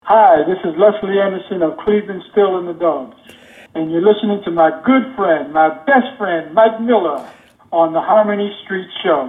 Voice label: promo